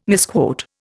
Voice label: "misquote", Indian